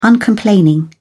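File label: "uncomplaining", British English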